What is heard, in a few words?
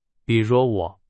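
Chinese, example sentence; hsk2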